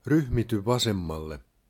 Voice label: Bear Left, Finnish male; Opas